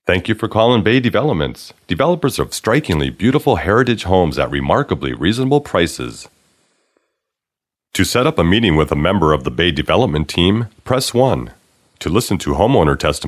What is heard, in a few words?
IVR phone messaging